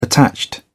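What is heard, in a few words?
"attached", English, British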